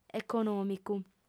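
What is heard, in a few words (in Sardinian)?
"econòmicu", female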